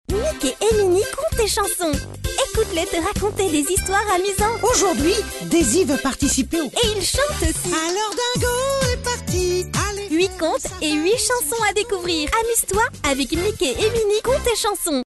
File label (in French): Voix Off Publicite TV; Voix dynamique, girly; Mickey et Minnie Contes et Chansons